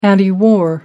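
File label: "antiwar", British English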